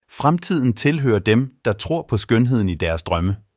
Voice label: danish; da-danish

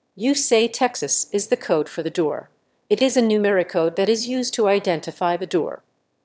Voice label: answer, emotion

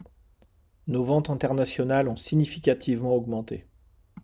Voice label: sales fr

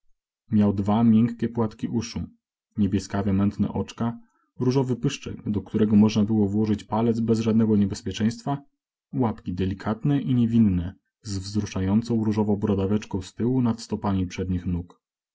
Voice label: polish

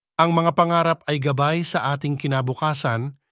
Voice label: tagalog, spoken language

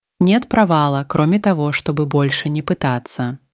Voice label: russian, spoken language; ru-russian

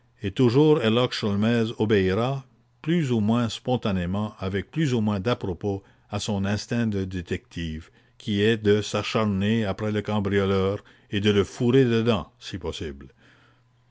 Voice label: french

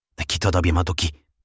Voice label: japanese, spoken language

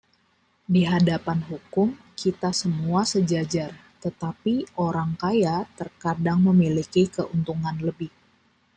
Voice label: sample indonesian

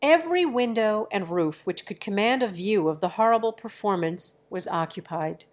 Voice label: english; COQUI-STT

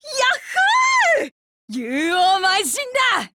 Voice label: FEED JP; girlsfrontline

